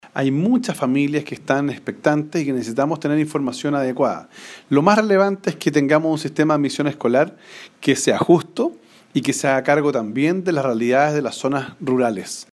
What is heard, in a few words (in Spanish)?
CUÑA; SAE HARBOE